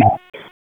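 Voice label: Police Northeast District Dispatch, p25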